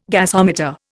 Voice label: "gasometer", Indian

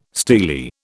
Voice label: "steely", British

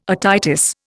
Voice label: "otitis", Indian

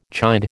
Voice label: "chide", American

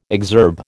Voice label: "exurb", American

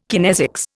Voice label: "kinesics", Indian

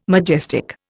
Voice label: "majestic", Indian

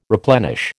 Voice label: "replenish", American

